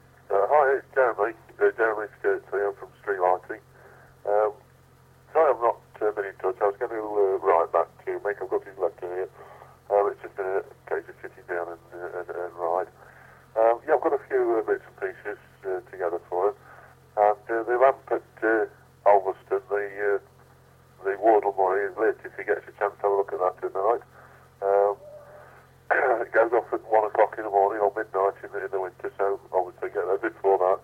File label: Answerphone Message